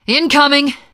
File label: incoming, teengirl survivor voice; source engine; left 4 dead 2